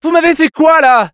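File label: Scout jeers, French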